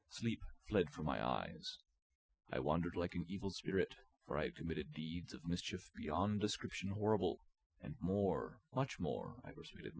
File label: male inst sim